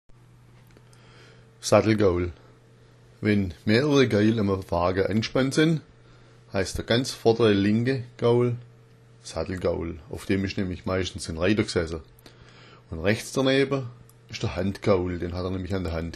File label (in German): "sattelgaul", schwaebisch